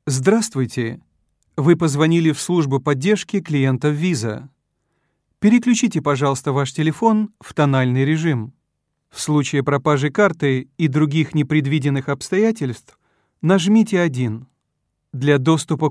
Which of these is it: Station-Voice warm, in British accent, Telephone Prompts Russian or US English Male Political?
Telephone Prompts Russian